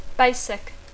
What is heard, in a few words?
"basic", English